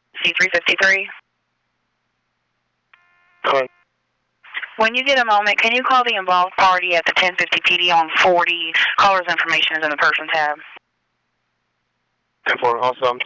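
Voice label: P25 dispatch radio; NC VIPER P25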